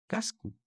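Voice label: "Casco", Occitan Languedocien; Prêts pour l’Òc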